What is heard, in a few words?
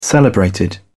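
"celebrated", British English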